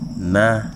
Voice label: "who", Wahgi; lae unitech